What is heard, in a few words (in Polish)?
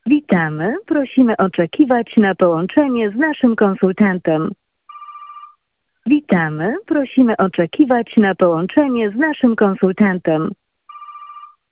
Prosimy oczekiwać na połączenie z naszym konsultantem